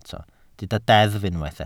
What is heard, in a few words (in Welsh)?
"deddf" Welsh